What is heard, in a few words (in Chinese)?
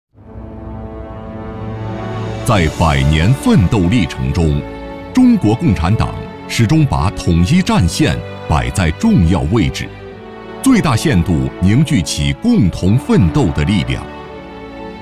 男 专题 高校统战工作专题片; 湖北高校统战工作专题片